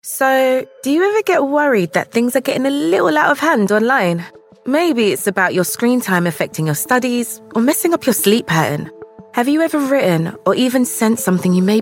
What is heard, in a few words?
e-learning